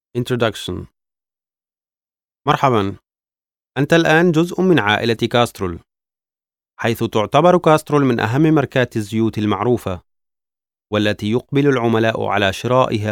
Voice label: locutor arabe arabic voiceovers; Arabic male voice over

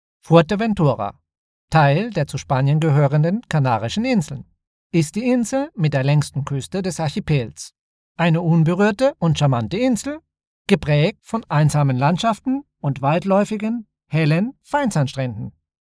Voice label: locutor aleman german voiceover; Speaker Tedesco

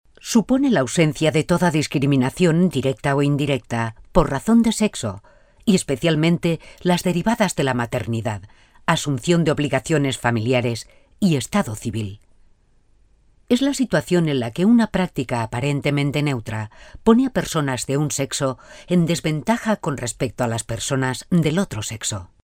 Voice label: locutora spanish voiceover

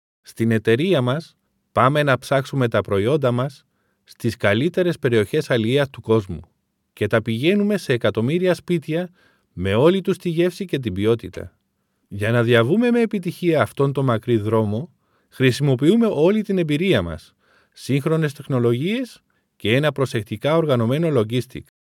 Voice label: locutor griego greek voiceover; Greek male voiceover

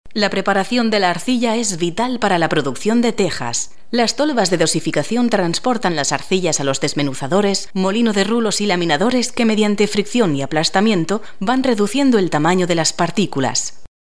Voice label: locutora spanish voiceover

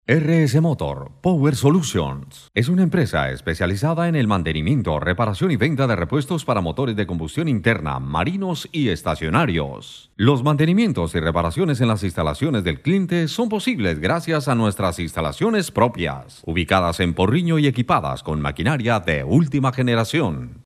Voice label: locutor colombiano colombian voiceover

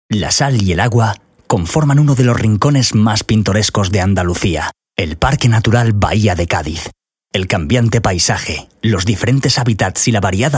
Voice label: locutor spain spanish voiceover

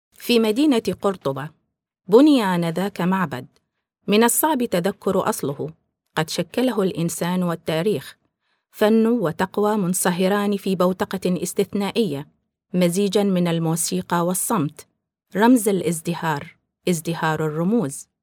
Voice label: locutora arabe arabic voiceover iraq; Arabic female voiceover. Iraq

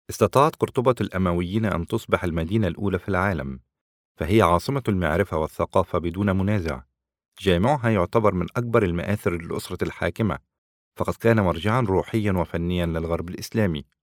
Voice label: locutor arabe arabic voiceover egyptian; Arabic male voice over. Egyptian

